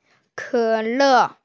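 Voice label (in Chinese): "ke3le4", Mandarin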